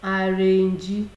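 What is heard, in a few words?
"arrangee", Nigerian Pidgin, female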